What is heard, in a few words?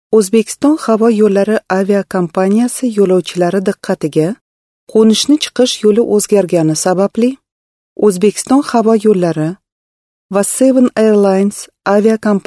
uzbek female